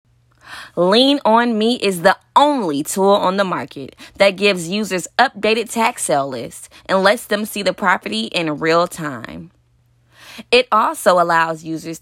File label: VO Female